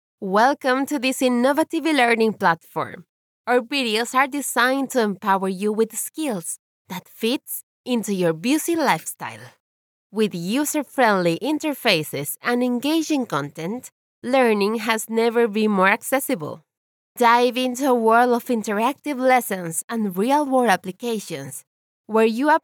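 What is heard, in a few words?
Spanish Latin America female English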